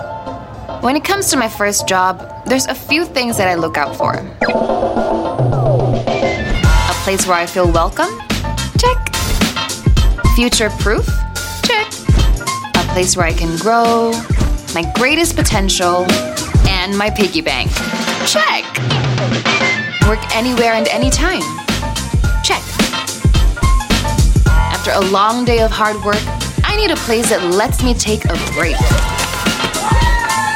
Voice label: Asian English